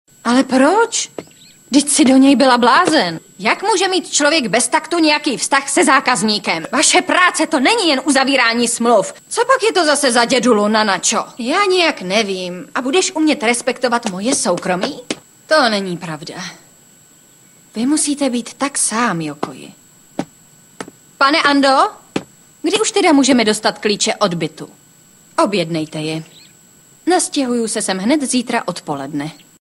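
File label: female Czech drama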